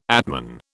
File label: "atman", British